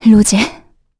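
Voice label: Vox, Korean; Valance; Rose; kings raid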